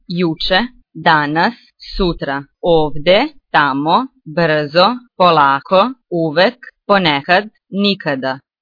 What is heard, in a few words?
serbian time